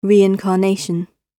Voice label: "reincarnation", English